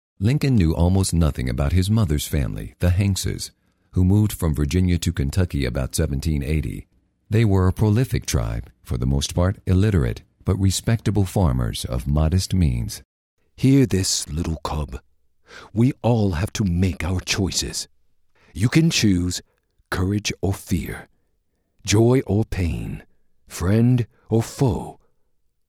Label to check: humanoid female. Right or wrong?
Wrong